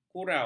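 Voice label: "kurau", Malay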